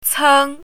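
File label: "ceng1", Chinese pinyin, first tone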